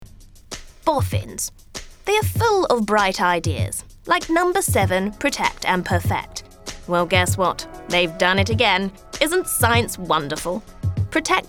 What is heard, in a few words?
Posh Accent Ad; Number 7